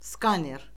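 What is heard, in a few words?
"skaner", Azerbaijani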